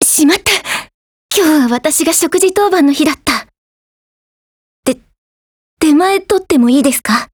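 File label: DIALOGUE, Japanese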